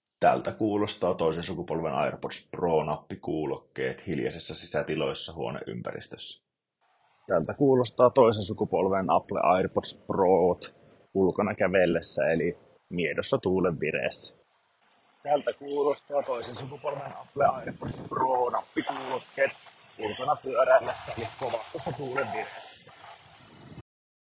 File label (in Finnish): Mikkiesimerkit, Apple AirPods Pro (2. sukupolvi) -nappikuulokkeet